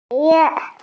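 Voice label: "shi2 yue4", Mandarin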